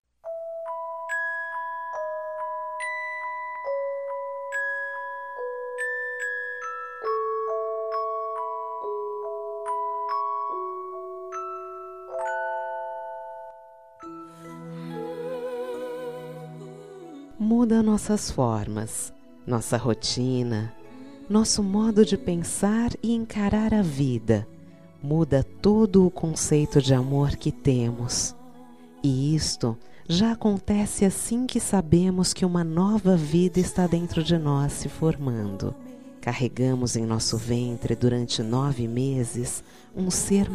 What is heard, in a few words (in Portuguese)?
Dia das Maes pra Gravida, F